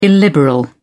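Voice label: "illiberal", British English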